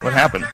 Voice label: what happened, male; questions

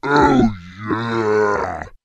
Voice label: "oh yeah", voice

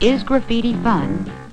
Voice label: is graffiti fun female, voice, question; questions

